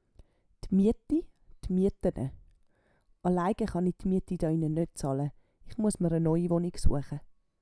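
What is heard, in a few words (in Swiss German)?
"d Mieti", Swiss German; Wortschatz Kapitel 8; Kapitel 8 Zusatzwortschatz 4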